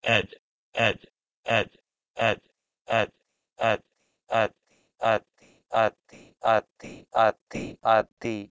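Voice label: Proto-Indo-European h1ed to Sanskrit atti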